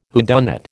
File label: "whodunit", American